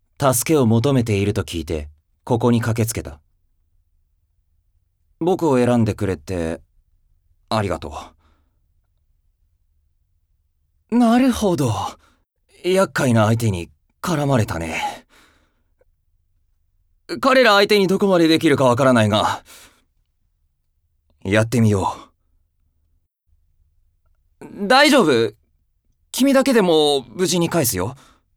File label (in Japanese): 残念なイケメン